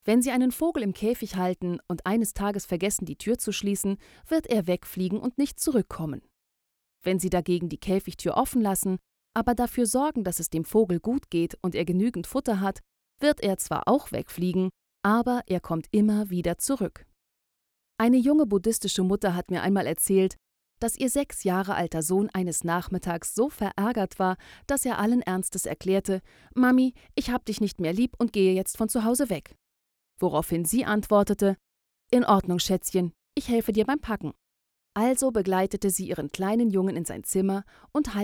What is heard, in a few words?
audiobook German; wisdom